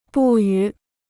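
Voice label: "不予", Chinese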